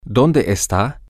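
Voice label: where are you formal, Spanish, male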